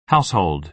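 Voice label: "household", American English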